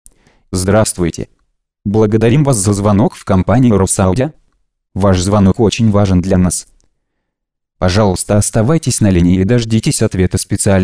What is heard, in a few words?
robot; ivr